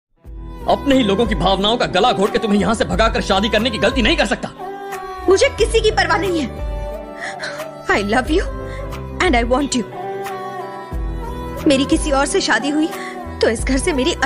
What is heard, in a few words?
sad emotional dialogue, hindi dialogue; Ram Charan; Kajal Aggarwal; south movie